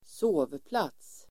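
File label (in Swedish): "sovplats", Swedish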